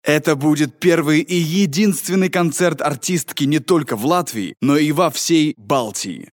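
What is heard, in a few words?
Russian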